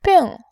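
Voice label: "bing", Mandarin Chinese, tone 4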